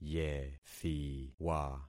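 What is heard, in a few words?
"yethiwa", concatenated syllables; Affixing experiment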